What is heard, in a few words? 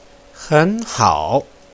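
"henhao", Mandarin Chinese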